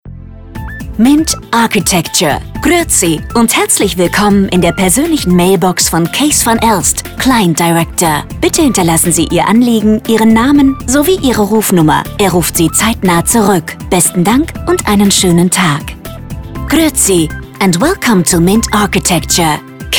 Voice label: telefonansagen mobilbox; mint architecture

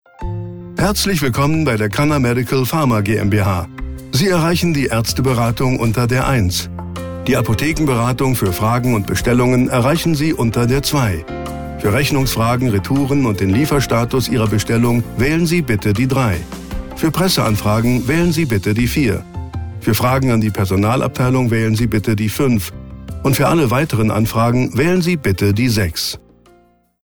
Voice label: Telefonansage; Synchronsprecher; Cannamedical

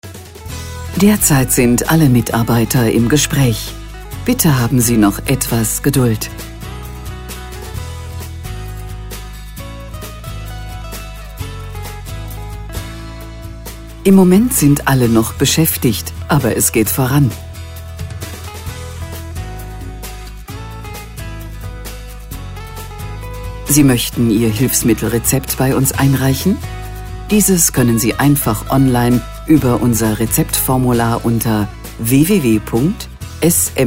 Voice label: Ansage Warteschleife; Sanitaetshaus Mueller Betten

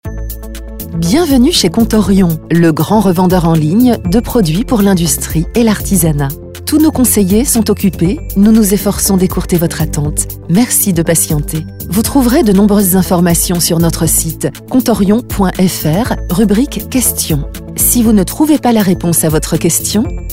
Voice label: Ansage stereo

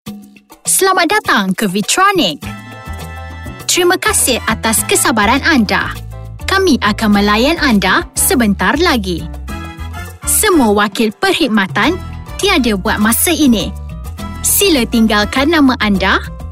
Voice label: telefonansagen sprecher mys; Native Speaker

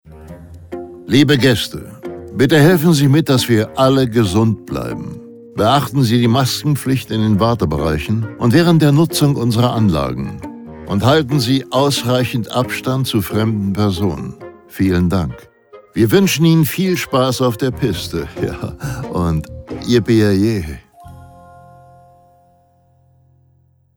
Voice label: Seilbahn Ansage DE; Silvrettaseilbahn AG

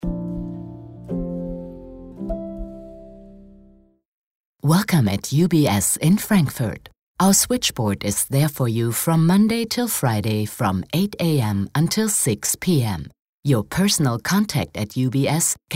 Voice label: Ansage englisch; UBS

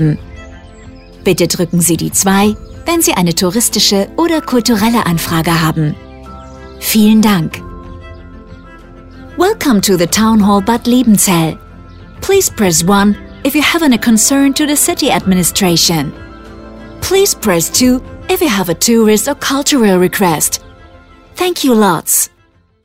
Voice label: Rathaus IVR Ansage; Städte, Gemeinden, Kommunen, Behörden, Ämter, Stadtwerke, Stadt; Telefonansage

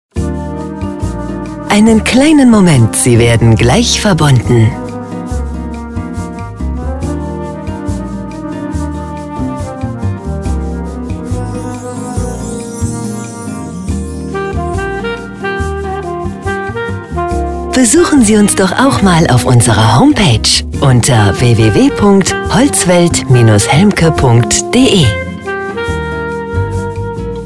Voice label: Warteschleife; Helmke

